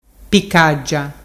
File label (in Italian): picaggia, dialettu